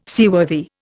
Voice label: "seaworthy", Indian